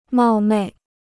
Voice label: "冒昧", Chinese

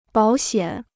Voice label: "保险", Chinese

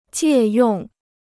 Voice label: "借用", Chinese

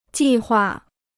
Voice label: "计划", Chinese

